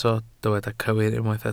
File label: "cywir", Welsh